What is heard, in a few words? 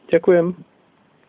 thanks, slovak